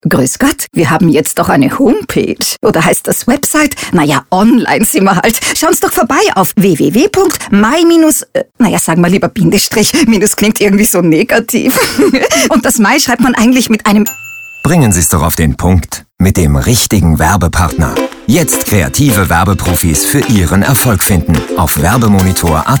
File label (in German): werbung